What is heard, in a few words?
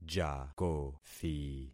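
"jakothi", concatenated syllables; Affixing experiment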